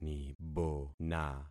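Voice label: "nibona", concatenated syllables; Affixing experiment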